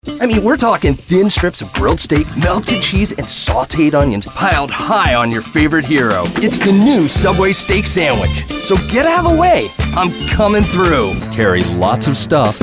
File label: Commercial VO